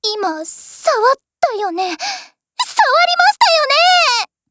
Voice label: DIALOGUE JP

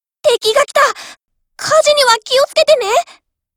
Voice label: warcry

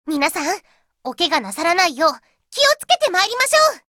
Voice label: warcry

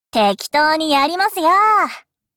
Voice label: warcry

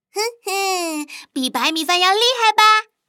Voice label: chinese

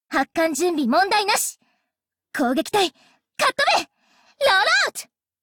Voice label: warcry

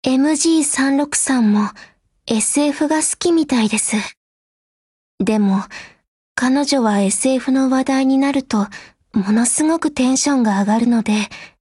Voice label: DIALOGUE, JP; M82

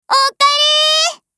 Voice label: HELLO, JP

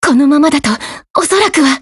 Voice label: BREAK, JP

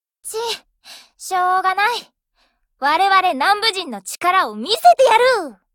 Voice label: warcry

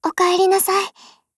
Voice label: HELLO JP

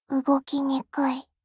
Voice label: warcry

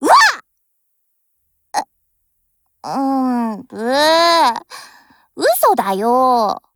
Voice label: DIALOGUE JP; UMP40

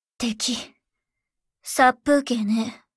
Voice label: warcry

